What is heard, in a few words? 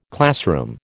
"classroom", American